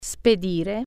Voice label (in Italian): "send", Italian